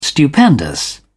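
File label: "stupendous", English, uk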